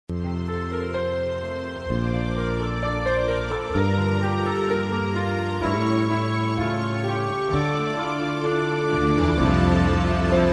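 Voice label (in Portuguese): NASCIMENTO MENSAGEM PARA QUEM ESPERA UM BEBE FEMININO, Telemensagens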